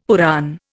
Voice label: "Purana", Indian; Dictionary